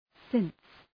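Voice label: "since", English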